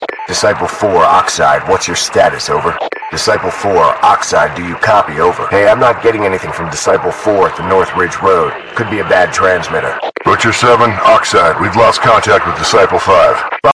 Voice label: radio chatter ringtone; cod2mw

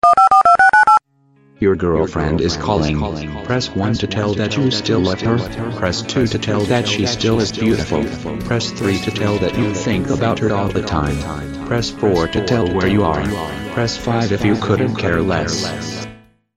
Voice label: girl friend calling ringtone

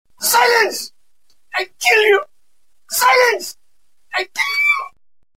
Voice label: silence I kill you cool short message tone

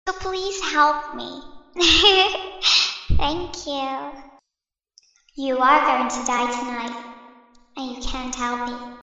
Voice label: girl talking ringtone

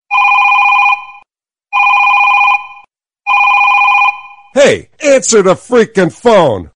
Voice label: hey its your phone funny tone ringtone

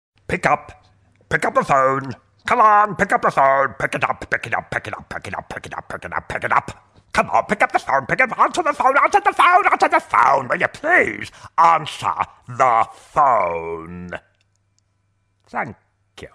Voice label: funny pick up the phone tone

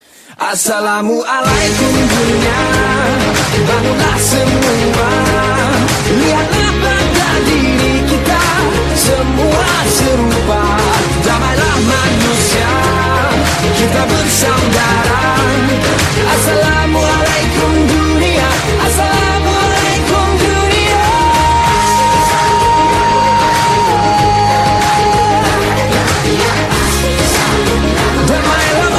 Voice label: assalamu alaikum arabic

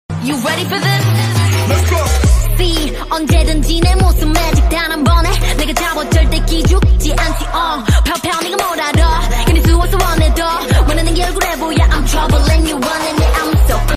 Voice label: rap

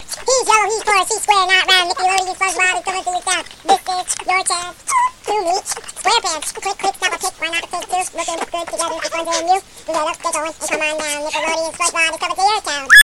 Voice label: funny chimpmunk ringtone

